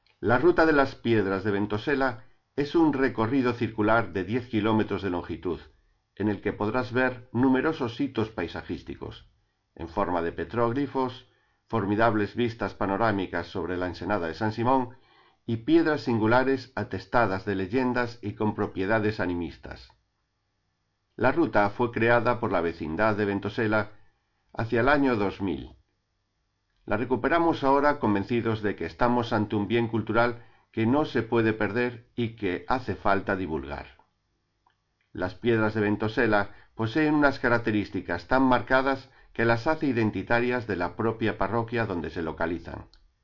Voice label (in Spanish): castellano saida